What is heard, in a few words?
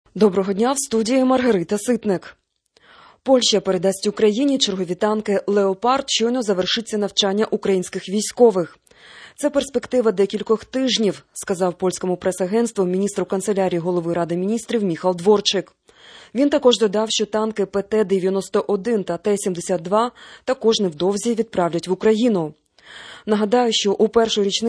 Ukrainian Morning News